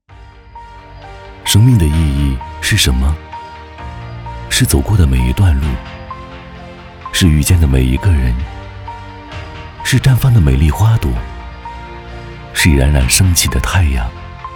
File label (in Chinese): 男 旁白; 依稻而行